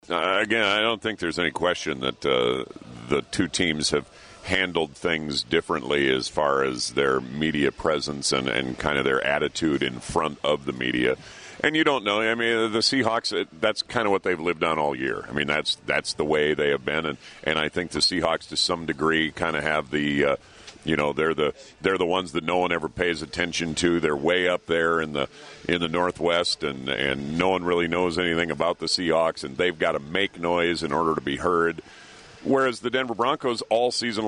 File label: RADIO TALK SHOW SUPER BOWL PREGAME